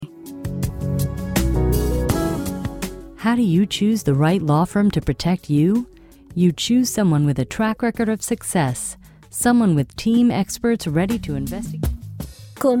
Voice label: Spanish Bilingual on hold